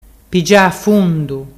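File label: "pigia fundu", Ligurian dialect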